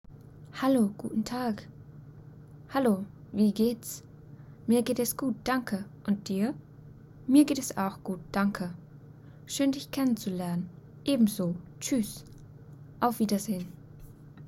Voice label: Dialog, basic german phrases